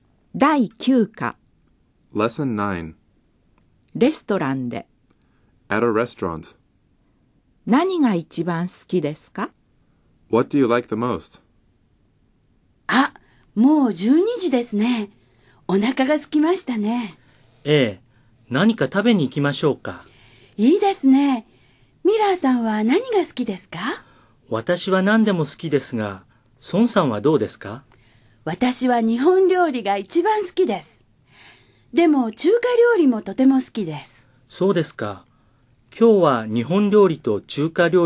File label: Dialog